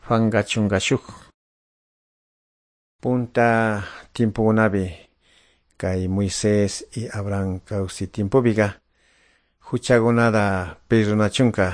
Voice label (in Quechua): good news quichua de calderon; quechua; bible